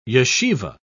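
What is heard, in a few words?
"yeshivah", British English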